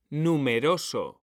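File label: "numeroso", Spanish; mekanta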